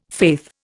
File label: "faith", Indian